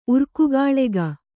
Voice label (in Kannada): "ಉರ್ಕುಗಾಳೆಗ", kannada